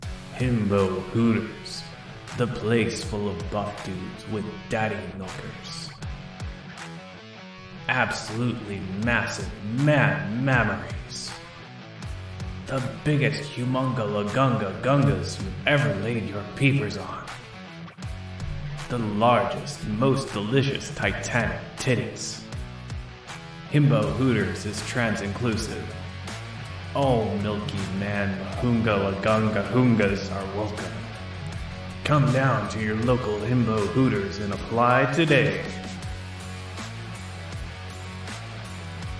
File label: Comedy, Advertisement; Himbo Hooters